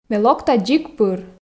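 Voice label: Komi, female; ме локта дзик пыр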